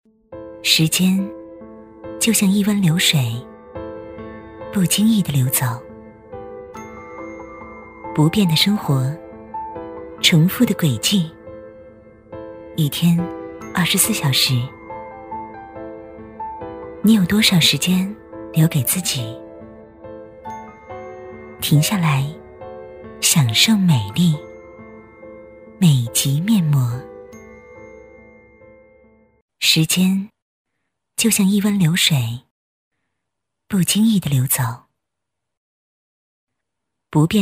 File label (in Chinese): 女 化妆品广告 面膜 优雅大气; 美即面膜; 大气庄重 质感磁性